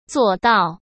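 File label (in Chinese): "做到", Mandarin Chinese; hsk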